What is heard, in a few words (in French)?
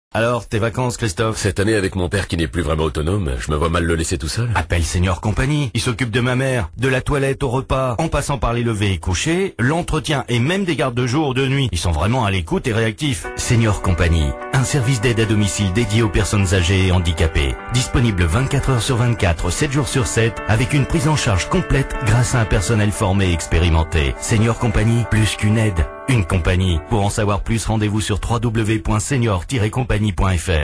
spot radio